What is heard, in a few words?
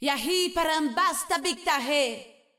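Latin American Spanish; 秩序之光（守望先锋）; 终极技能; 主动/敌方释放语音; 拉美地区; 西班牙语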